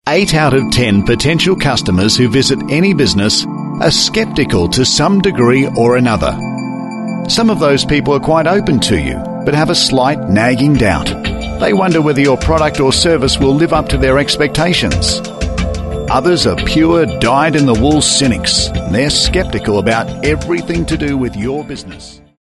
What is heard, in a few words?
Male Talent corporate